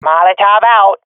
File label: throwing molotov, swat fem; Counter Strike Model Pack; CS:GO